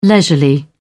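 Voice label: "leisurely", British English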